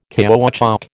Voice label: "caoutchouc", American

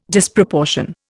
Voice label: "Disproportion", Indian

